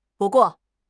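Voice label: "bu4 guo4", Mandarin Chinese; HSK4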